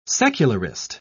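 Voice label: "secularist", British English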